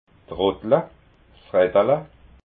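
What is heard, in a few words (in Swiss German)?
"rottla", Alsatian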